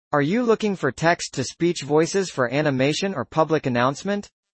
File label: en-US; Dillon